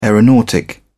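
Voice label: "aeronautic", English, uk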